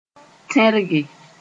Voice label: "tẽrge", Moore